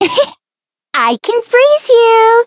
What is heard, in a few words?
Voice chant; yukino